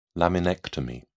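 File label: "laminectomy", British English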